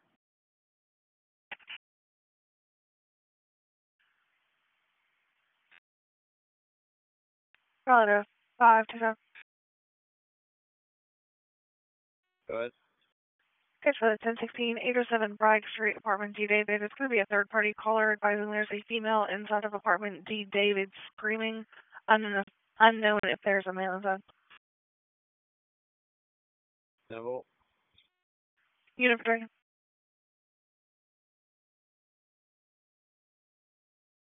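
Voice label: Raleigh Police Southeast District Dispatch, p25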